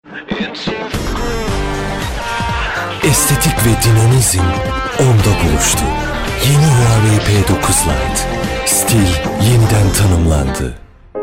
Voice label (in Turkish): ERKEK